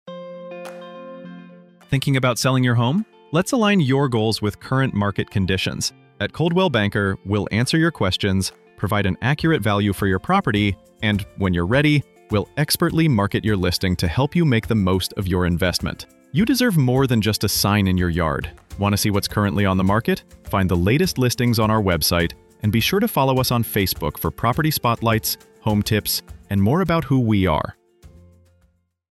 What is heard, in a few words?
MALE